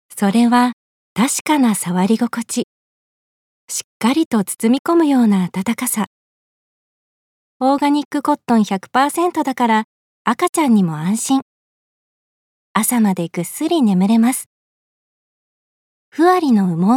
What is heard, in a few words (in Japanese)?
ささやきCM